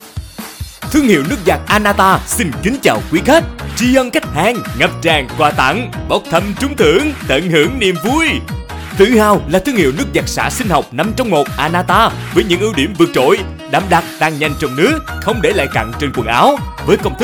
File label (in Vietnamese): Thu am Phat Loa; Nuoc giat Anata; thu am da ngon ngu